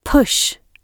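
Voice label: "push", British English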